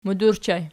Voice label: "mud", Archi